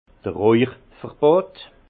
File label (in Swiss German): "rauche verbote", alsace; interdit de fumer; vin